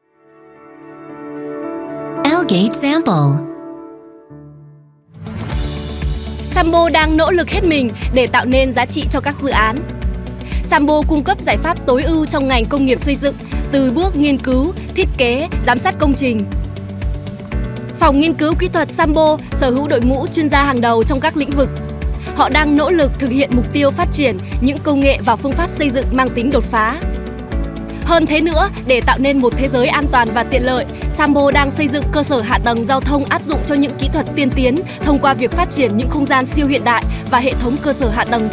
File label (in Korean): 베트남 여 밝은톤